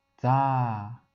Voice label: "dza", Wu Chinese; romanization